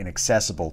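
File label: "inaccessible", US English; pronunciations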